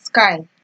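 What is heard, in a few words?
"sky", Nigerian Pidgin, female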